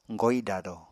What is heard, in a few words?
"goidado", Apatani